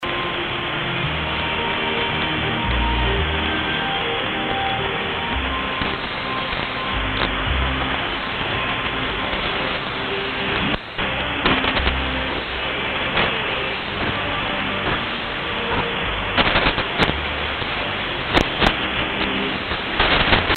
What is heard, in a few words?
5935khz AM; PBS Xizang China